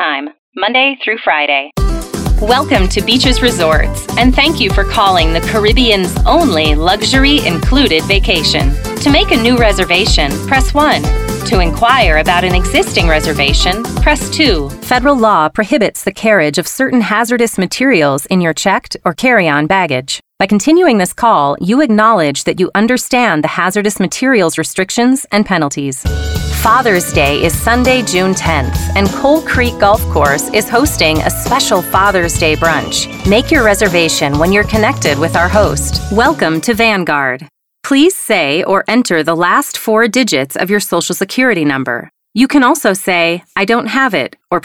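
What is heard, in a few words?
US PHONE, American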